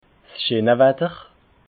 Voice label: "scheena watter", alsace

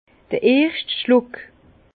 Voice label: "erschtschluck", Bas Rhin; vin

